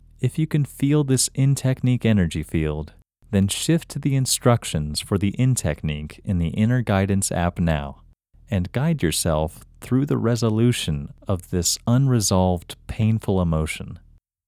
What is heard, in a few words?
Male